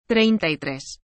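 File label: "treinta y tres", Spanish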